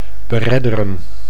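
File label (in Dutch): "beredderen", Dutch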